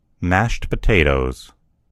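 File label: "mashed potatoes", US English; gcompris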